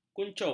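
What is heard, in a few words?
"kuncup", Malay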